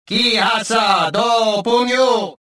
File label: suspicious, rodian